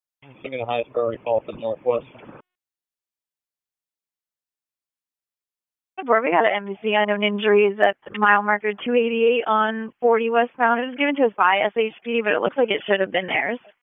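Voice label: Police Southwest District Disp, radio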